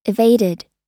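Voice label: "evaded", US English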